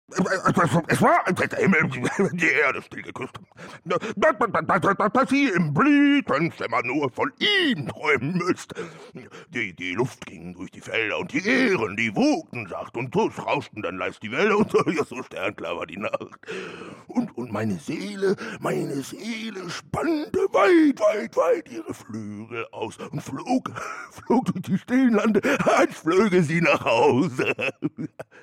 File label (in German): chargiert